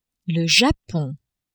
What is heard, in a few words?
"Japon", French